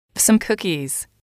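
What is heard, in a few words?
some cookies, female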